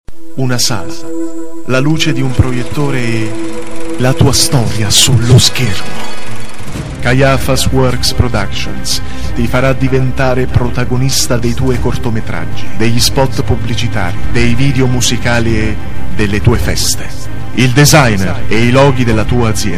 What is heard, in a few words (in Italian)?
lounge uomo; voci